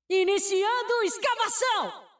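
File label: Portuguese; 守望先锋2; 终极技能; 主动/敌方释放语音; Iniciando escavação!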